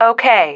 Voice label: "okay", female